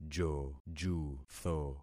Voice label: "jojutho", concat syllables; Affixing experiment